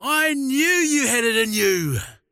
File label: croak big; dota; largo